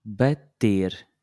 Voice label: "bater", Portuguese